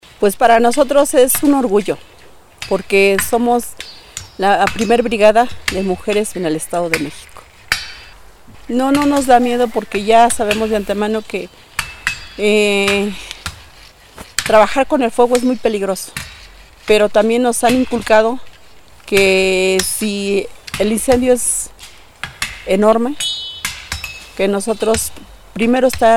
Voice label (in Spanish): testimonio; Amanalco